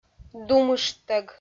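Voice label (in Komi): "думышттӧг", Komi, female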